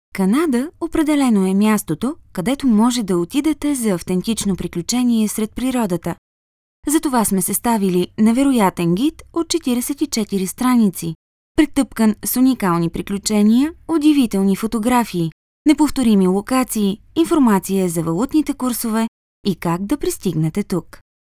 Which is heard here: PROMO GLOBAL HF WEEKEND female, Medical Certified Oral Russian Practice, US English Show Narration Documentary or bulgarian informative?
bulgarian informative